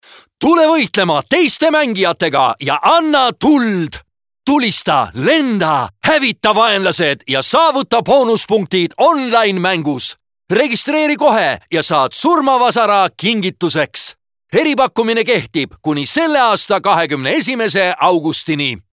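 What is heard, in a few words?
Estonian male Character, vo examples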